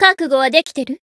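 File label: Voice chant, Japanese; toova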